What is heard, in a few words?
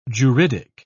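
"juridic", British English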